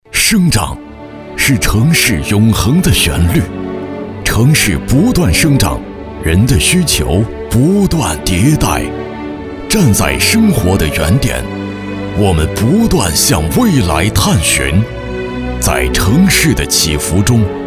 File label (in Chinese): 男 宣传片 磁性大气; 生长